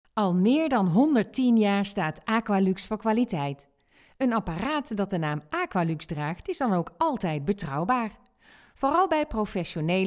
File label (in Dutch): Bedrijfsfilm; Aqualux